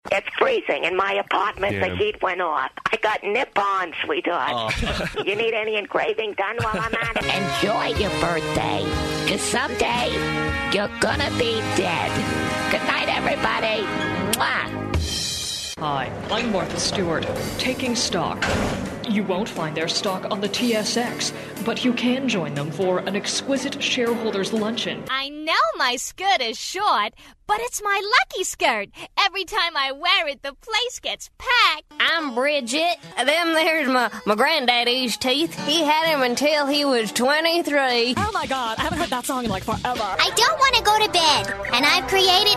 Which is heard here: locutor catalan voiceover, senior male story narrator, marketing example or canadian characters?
canadian characters